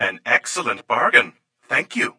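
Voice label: droid voice excellent bargain thank you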